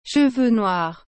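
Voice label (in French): "cheveux noirs", French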